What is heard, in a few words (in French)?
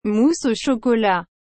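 "mousse au chocolat", French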